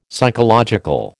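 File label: "psychological", American English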